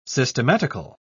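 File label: "systematical", British English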